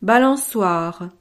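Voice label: "balancoire", French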